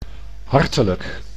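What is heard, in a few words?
"hartelijk", Dutch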